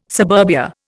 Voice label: "suburbia", Indian